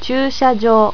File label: "chuushajo", japanese